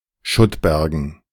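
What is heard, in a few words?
"Schuttbergen", German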